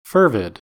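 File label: "fervid", US English; pronunciations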